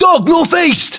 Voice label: uk threat dog, battlechatter